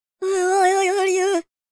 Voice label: angry, Hippy

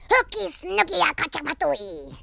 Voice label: dragon punch, Horror

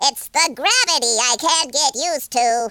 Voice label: ouch, speech, alien; Worms3D

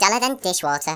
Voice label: boring; Worms3D; Whoopsie

